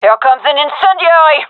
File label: throwing fire, gendarmerie fem; vo